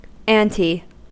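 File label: "ante", American English; pronunciations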